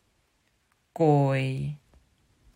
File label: "Kooi", Dutch